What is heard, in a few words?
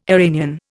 "Iranian", Indian